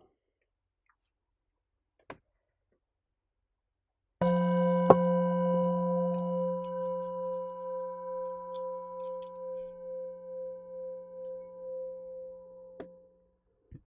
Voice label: Emotion and Mood Scan, meditations